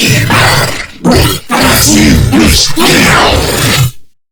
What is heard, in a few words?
Boss Speak Combat Taunt; Warframe; Lech Kril